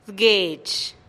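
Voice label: "d-gueex", Teotitlán del Valle Zapotec; pueblos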